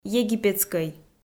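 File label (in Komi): "египетскӧй", Komi, female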